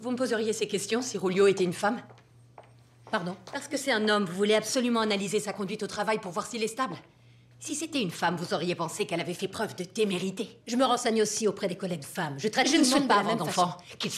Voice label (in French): doublage; major crimes